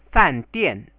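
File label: "fandian" Chinese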